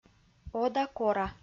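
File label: "ода-кора" Komi-Zyrian, female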